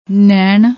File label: "nain", Punjabi